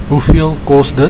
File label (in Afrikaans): "Hoeveel kos dit?", Afrikaans